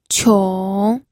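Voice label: "qiong", Mandarin Chinese, third tone; pinyin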